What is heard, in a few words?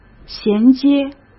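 "衔接", Mandarin Chinese; hsk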